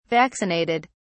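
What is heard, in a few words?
"vaccinated", British English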